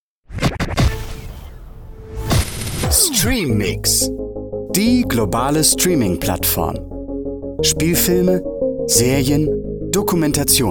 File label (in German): image Werbung; streamix